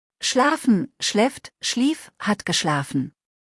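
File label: "schlafen", German; verben kurzliste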